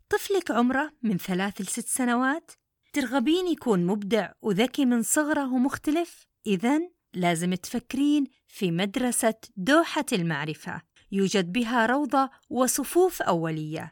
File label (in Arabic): سعودي; دوحةالمعرفة